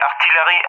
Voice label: "artillery", ENG; Target; Allied Flight; DCS

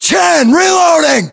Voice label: US inform reloading, battlechatter, english

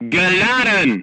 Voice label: voice message charged, crew tanks german

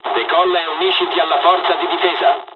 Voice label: takeoff, dialogs italian; War Thunder

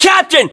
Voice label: rank captain, us

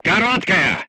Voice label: voice message short stop, crew tanks russian

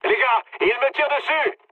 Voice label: aircraft damaged mood med, speech fr; War Thunder

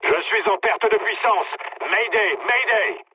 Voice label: damaged engine mood low, speech fr; War Thunder

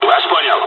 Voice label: dialogs russian; War Thunder

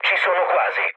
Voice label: near waypoint mood low, speech it; War Thunder

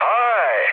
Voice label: trick, mood med, speech tur; War Thunder

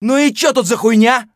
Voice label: mutter; Escape from Tarkov

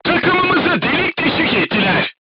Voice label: voice message player tank hit, crew tanks turkish

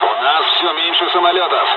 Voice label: dialogs russian; War Thunder

